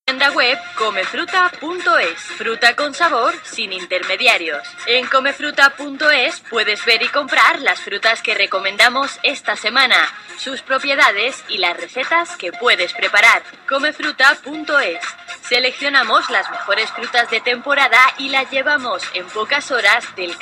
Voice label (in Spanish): cuña radio; ondacero madrid norte